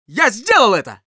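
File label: Scout cheers, Russian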